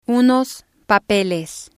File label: unos papeles, Spanish